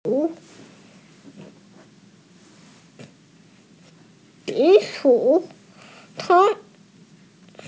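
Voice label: "zi3shu3", Mandarin Chinese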